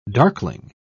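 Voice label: "darkling", British English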